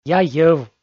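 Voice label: "яй-йӧв", Komi, male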